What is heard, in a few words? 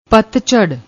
"patchar", Punjabi